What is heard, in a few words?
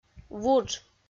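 "вудж", Komi, female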